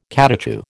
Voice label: "catechu", American